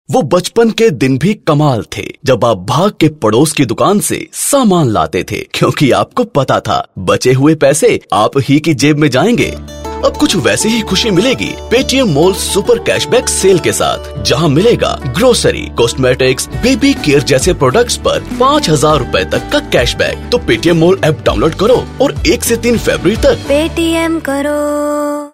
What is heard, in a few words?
hindi, male voice